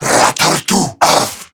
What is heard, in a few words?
Cover Me Corrupted Grineer Male